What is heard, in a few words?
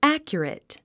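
"accurate", English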